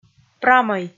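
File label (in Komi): "прамӧй" Komi, female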